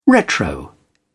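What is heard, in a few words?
"retro", British English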